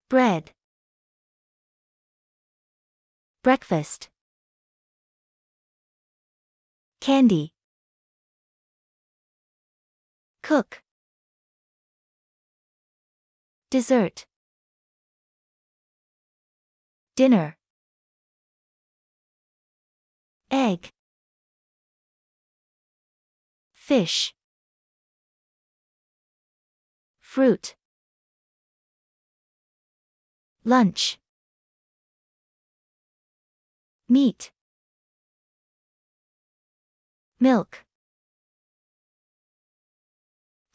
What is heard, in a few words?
usa